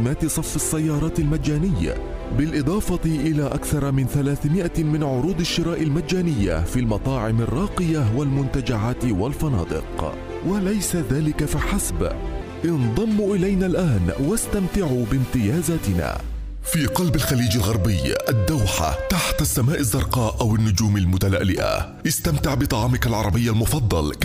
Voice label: Arabic Voice Over Commercial